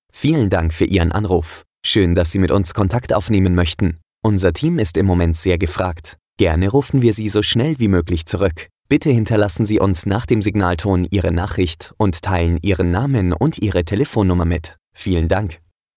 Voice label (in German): ansage (m)